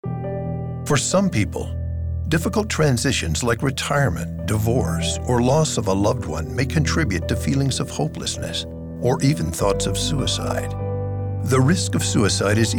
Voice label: Radio

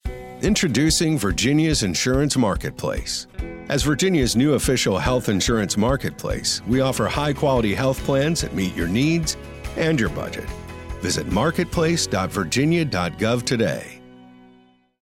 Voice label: Radio PSA M

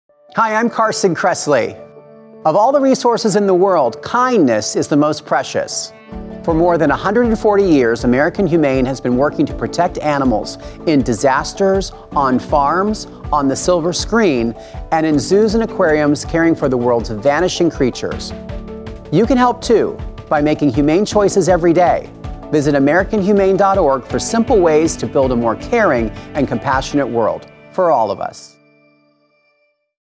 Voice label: PSA radio

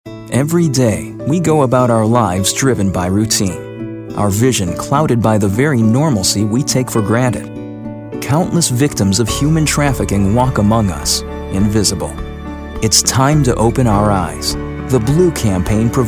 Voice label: Radio English; DHS; Blue Campaign

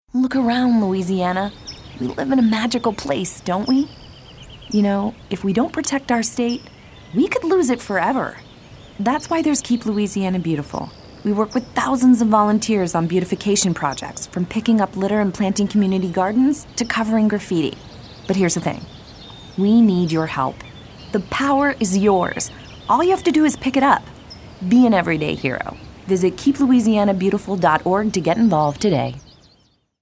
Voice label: female

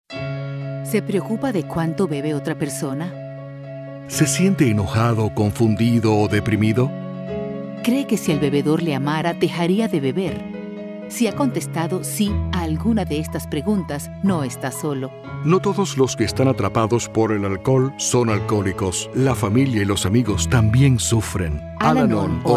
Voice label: SPANISH questions; AlAnon

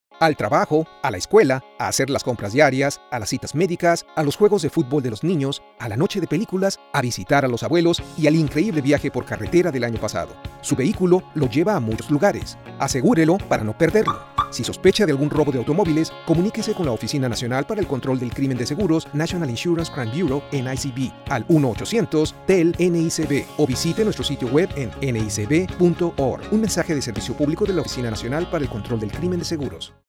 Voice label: Spanish; NICB

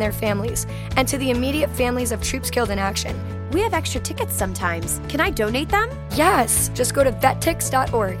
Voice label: What gives Female; Vet Tix

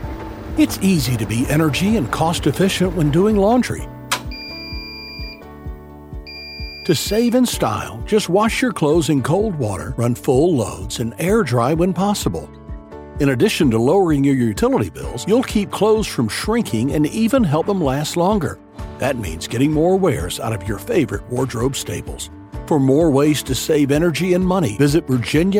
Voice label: Radio Spot; Laundry

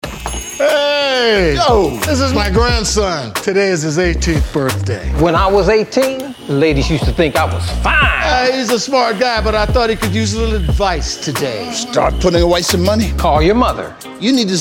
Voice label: RADIO MIX; Reingold; BARBERSHOP

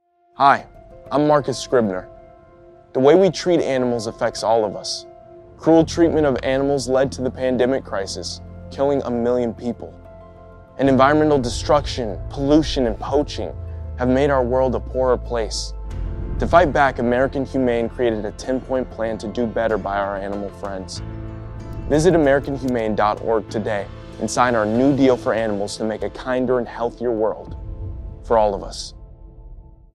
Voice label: Radio; Marcus Scribner

